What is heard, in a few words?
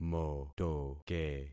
"motoke", concat syllables; Affixing experiment